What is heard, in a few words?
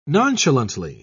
"nonchalantly", en uk